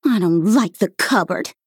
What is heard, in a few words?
Female Cup Attack